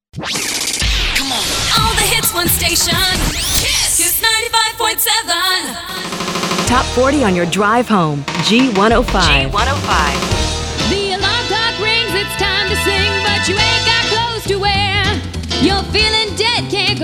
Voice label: Imaging; Imaging Demo; Female Voice Talent